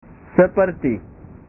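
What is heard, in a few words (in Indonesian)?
"seperti", Indonesian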